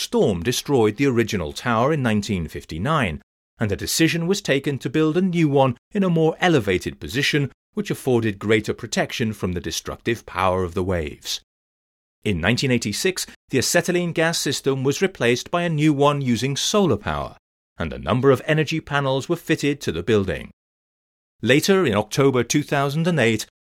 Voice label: lighthouse audioguide; es vedra